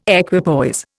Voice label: "equipoise", Indian